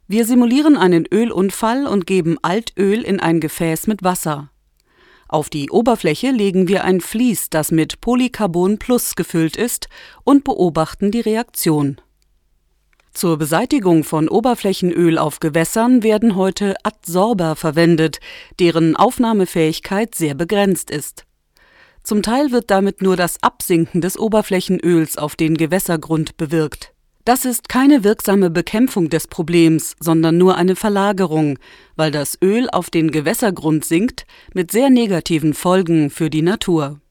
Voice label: Industriefilm

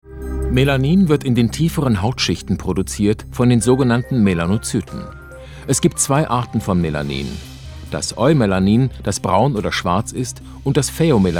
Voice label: Doku Erzaehler; WDR

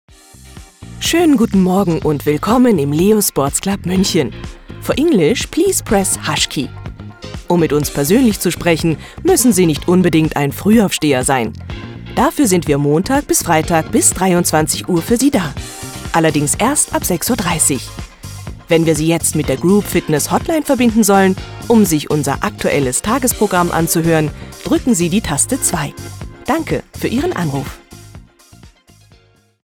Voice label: Telefonansage

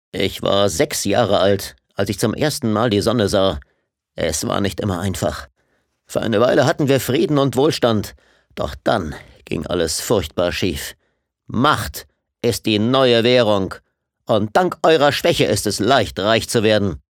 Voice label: Action Stimme

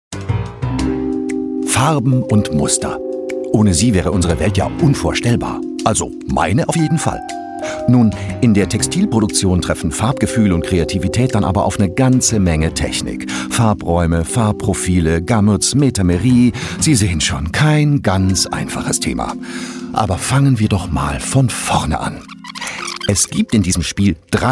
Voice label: Imagefilm; DMIx

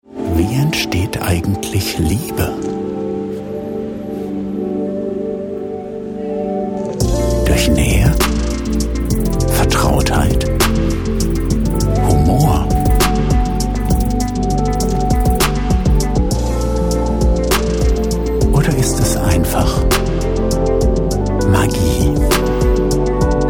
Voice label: Werbung traeumerisch Deutsch

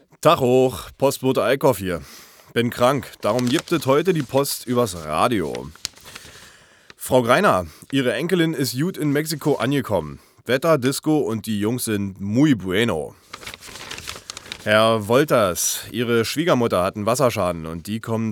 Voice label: Werbung; Radiozentrale

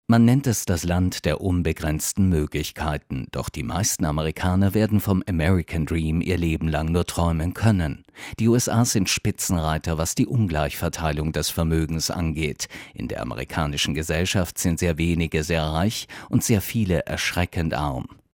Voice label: Doku USA

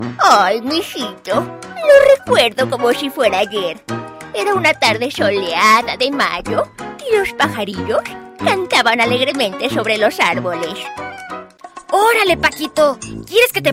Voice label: Zeichentrickfilmfiguren, spanisch

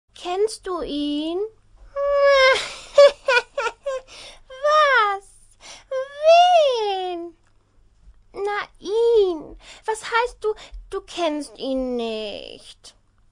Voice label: hoerspielmix

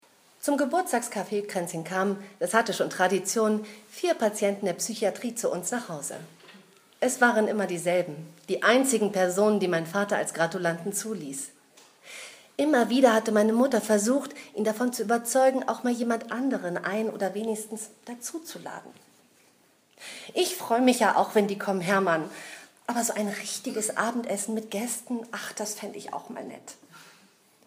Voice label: Lesung; Salonfestival

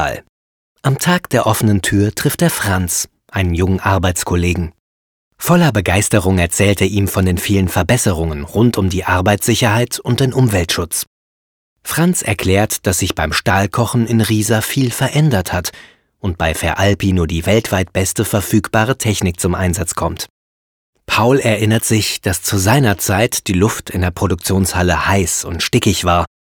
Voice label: Erklaerfilm Stahlwerk

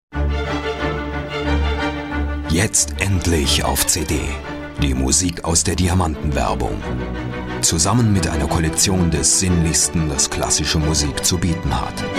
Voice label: Werbung; Sony

